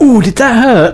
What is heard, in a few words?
Sorry, male; svencoop